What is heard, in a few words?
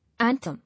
"Anthem", English